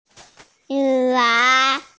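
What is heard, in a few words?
"yú wǎng", Mandarin Chinese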